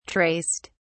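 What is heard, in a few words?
"traced", British English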